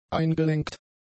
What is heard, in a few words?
"eingelenkt", German; adjectives